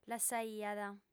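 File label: "lassaiat", female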